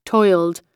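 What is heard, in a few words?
"toiled", US English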